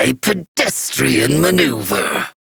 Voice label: Battle Taunt, Dialog; Kuva Lich; Persona C